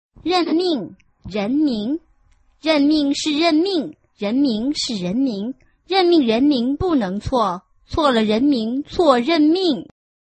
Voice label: chinese tongue twister